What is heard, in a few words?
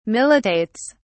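"militates", British English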